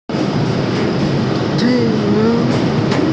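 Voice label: "ti3yu4", Mandarin Chinese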